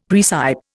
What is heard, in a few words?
"praecipe", Indian; Dictionary